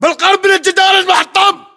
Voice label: landmark near wallbroken, localized english battlechatter